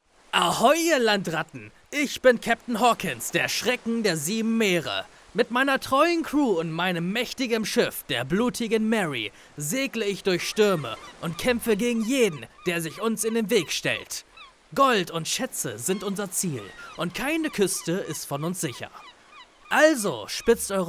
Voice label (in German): Hoerspiel; Hörspiel; Captain Hawkins